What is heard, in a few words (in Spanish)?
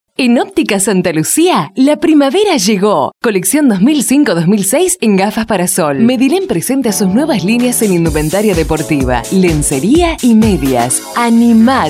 locucion